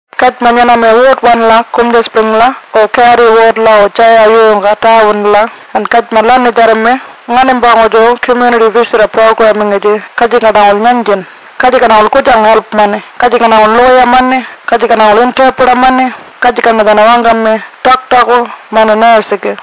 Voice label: Warlpiri